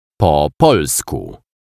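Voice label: "po polsku", Polish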